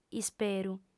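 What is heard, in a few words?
"isperu", female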